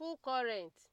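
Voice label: "full current", Nigerian Pidgin, female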